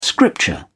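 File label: "scripture", British English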